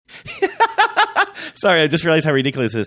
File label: laughing saying sorry i realized how ridiculous this is